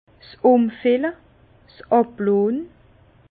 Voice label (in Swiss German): "umfille", Bas Rhin; vin; soutirage